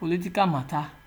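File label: "political matter", Nigerian Pidgin, male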